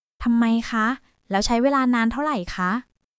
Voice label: Thai, basic thai phrases